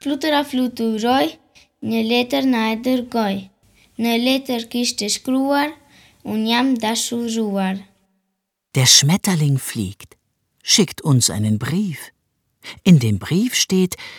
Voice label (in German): Albanisch, Kinderverse